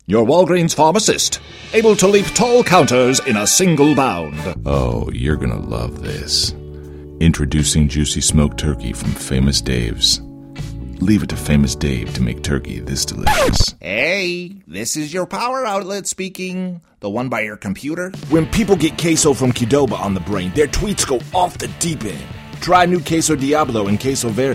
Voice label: Character